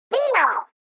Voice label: Octoling Girl Booyah!